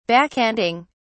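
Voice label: "backhanding", British English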